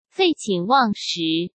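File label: "废寝忘食" Mandarin Chinese; chinese flashcards; hsk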